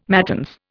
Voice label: "matins", Indian